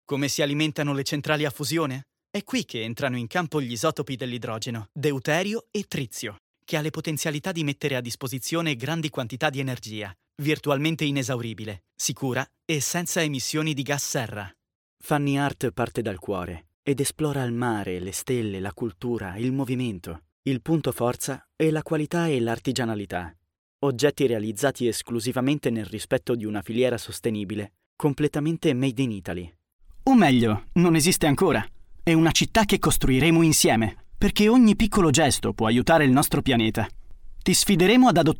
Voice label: elearning

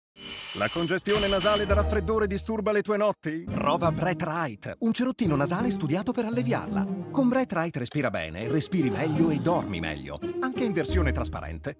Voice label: spot; brathe right

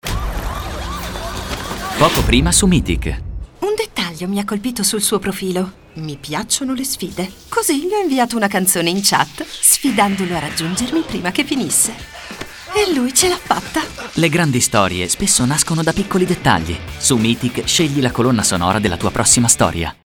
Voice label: ITA; Meetic

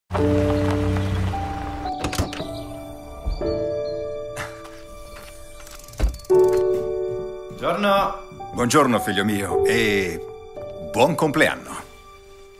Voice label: TV, italiano; SVIZZERA SEAT Leon ST FR Line

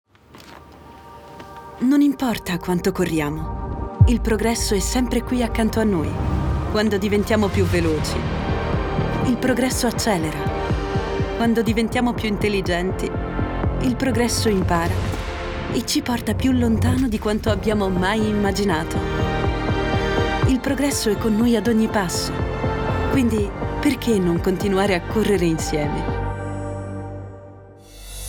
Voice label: storyteller, strada enfatica; audi; q4 sb e-tron; nocartello

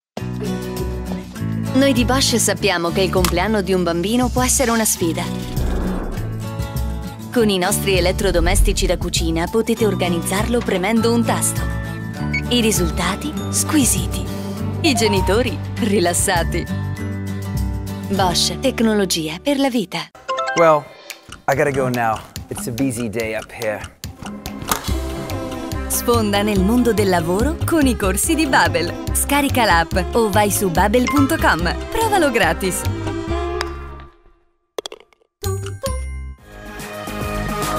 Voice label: commercial

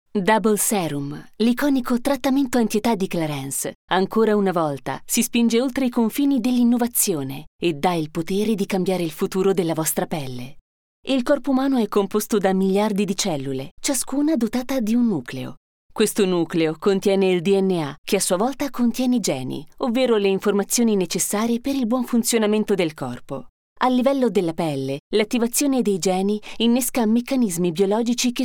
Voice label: corporate; clarins

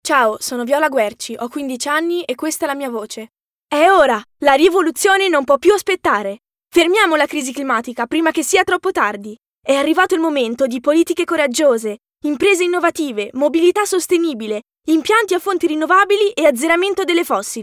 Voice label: spot

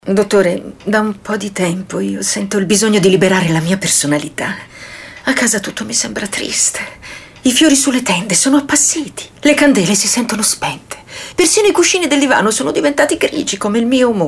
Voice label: indoor; ikea

